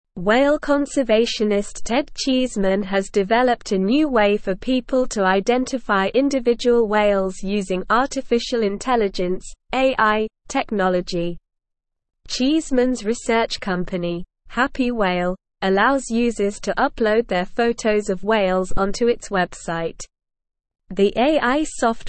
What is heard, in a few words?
Advanced SLOW Reading, English; English Newsroom; AI Software Revolutionizes Wildlife Identification and Conser